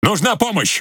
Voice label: Ru Speech Need Support; Quick Commands

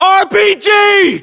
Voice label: uk threat rpg, battle chatter, English

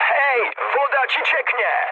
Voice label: damaged water mood high, speech pol; War Thunder

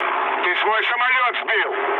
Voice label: player killed ally, mood low, speech ru

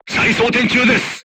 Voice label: voice message reloading, crew tanks japanese

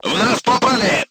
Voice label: voice message player tank hit, crew tanks russian

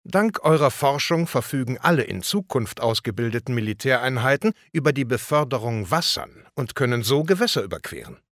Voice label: Embarking, Speech German Advisors; Civ5

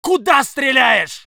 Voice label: voice sov infantry

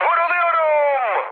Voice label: aircraft damaged mood high, speech; War Thunder

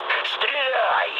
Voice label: attack position, mood med, speech ru; War Thunder

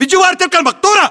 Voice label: landmark near trailer, battle chatter, english

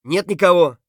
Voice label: clear, voice; Escape from Tarkov; scav3

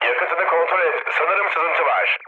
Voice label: tank damaged, mood low, speech tur; War Thunder

